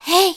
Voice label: "Hey", Soft; Zelda